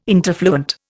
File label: "interfluent", Indian